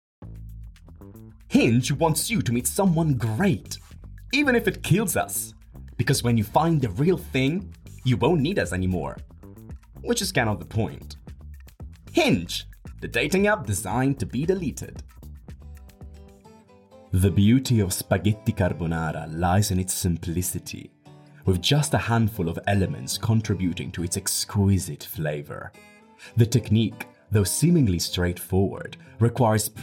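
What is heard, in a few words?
Italian, Accented English; voice demos; GoLocalise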